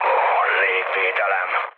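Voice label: Hungarian damaged aa mood med, speech; War Thunder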